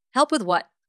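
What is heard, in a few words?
VoiceActing Spanish, female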